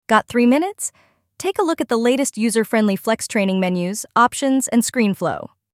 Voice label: e-learning invite